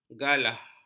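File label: "galah", Malay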